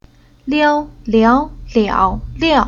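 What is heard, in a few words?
"Liao", Chinese syllable; Уроки фонетического блока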